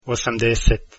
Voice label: "80", Croatian